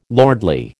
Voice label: "lordly", American